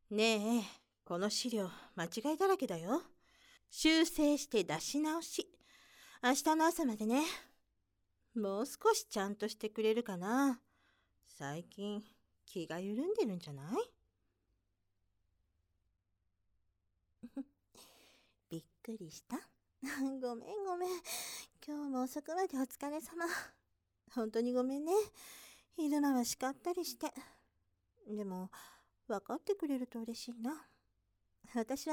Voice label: 耳元でささやき＆耳舐め, ASMR; オフィスでイチャイチャ♪; 先輩

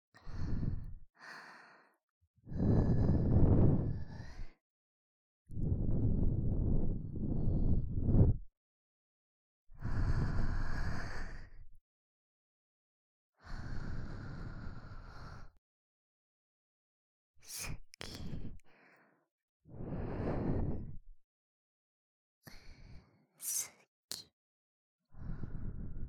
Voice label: 囁き 耳舐め; 洗脳／サイコパス; Psycho-Logic ～監禁家族化計画～; 効果音なし; ねっとり囁き洗脳耳舐め

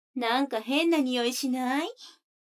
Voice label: 女の子 台詞, ASMR

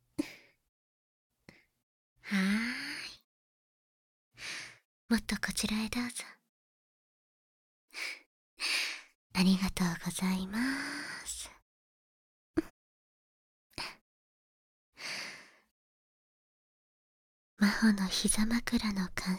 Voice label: 耳かき両耳（バイノーラルマイク 効果音なし）

